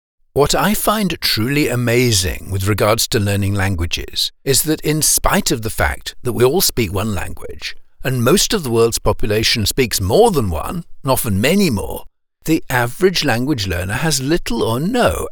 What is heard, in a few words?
eLearning Phonetics